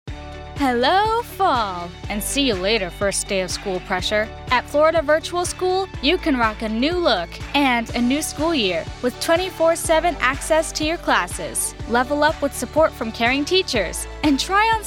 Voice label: Commercial